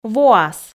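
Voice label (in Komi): "воас", Komi, female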